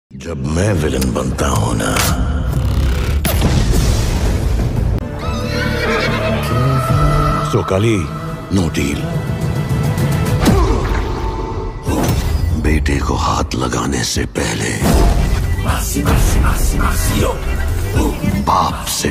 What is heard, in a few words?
Trailer Dialogue Ringtone; Jawan